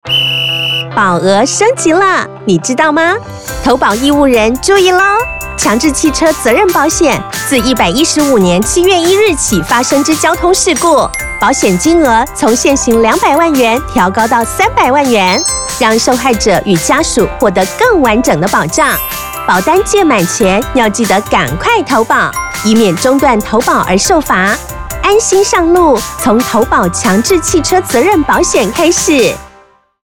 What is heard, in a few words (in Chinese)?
國語